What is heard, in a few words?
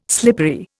"slippery", Indian